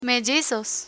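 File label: "mellizos", Spanish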